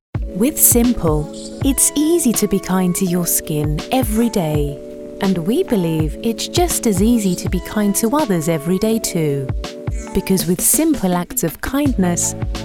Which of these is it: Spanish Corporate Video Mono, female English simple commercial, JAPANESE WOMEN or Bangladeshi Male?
female English simple commercial